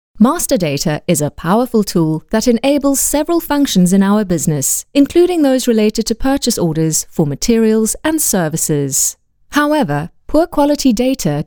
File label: english south african e-learning